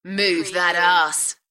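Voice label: British female Move that arse; beanstalk audio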